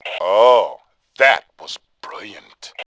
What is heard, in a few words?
sarcasm, Male; voice; Tribes2